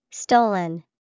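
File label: Stollen, English vocabulary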